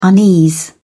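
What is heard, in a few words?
"unease", British English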